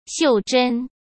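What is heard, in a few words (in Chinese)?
"袖珍", Mandarin Chinese; hsk; chinese-flashcards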